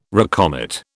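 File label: "recommit", British English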